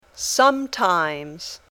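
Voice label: "sometimes", English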